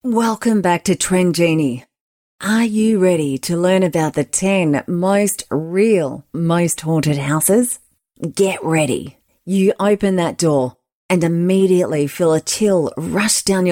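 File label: English Australian, Narrative; GoLocalise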